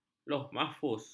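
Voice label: "lohmahfuz", Malay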